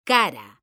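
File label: "cara", Spanish, female; mekanta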